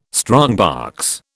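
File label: "strongbox", British English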